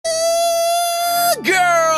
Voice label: uuuuuuuuuuh girl

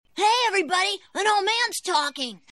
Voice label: old mans talking; simpsons

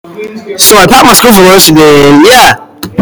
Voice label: bass boosted

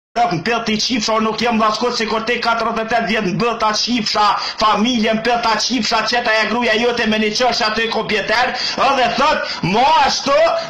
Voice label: albanian curses

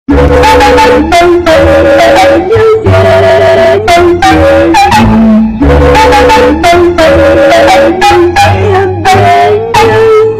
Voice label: damnnnnn loud one

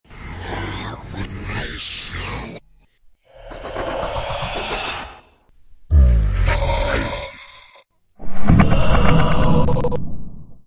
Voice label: whisper scary backwards

